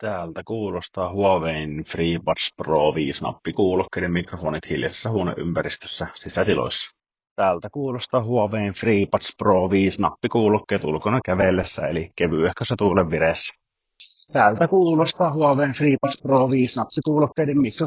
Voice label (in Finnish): mikrofonit, nappikuulokkeet; Huawei FreeBuds Pro 5